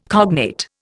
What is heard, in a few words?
"cognate", Indian